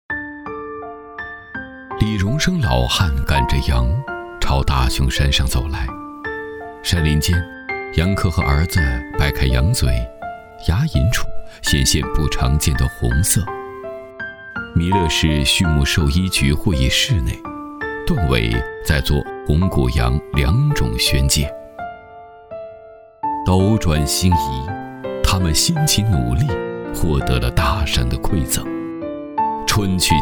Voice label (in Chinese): 男 纪录片; 牧羊记·守望山川